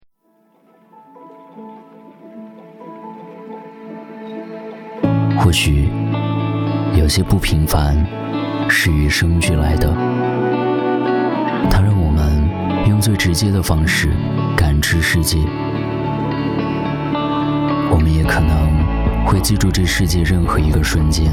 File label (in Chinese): 旁白; 每一程都了不起